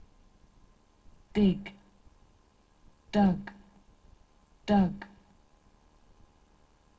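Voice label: "dig", irregular verbs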